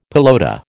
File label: "pelota", American